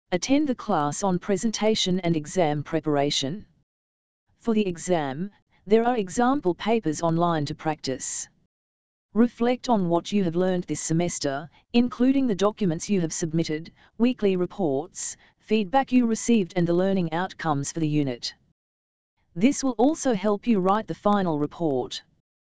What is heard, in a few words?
dialog